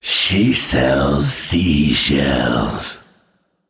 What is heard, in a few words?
Male Naga Play